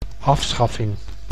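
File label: "afschaffing", Dutch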